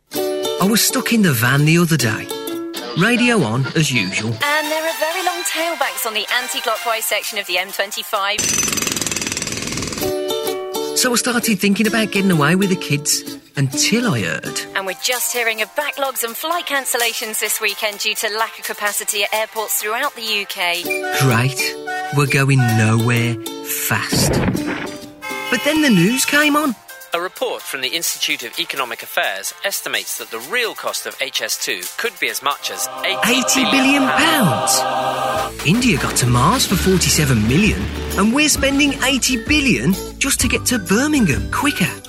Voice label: white van man